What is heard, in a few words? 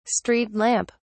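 "street lamp", English, British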